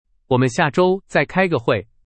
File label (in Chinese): 我们下周再开个会。, ZH MALE; cau tieng trung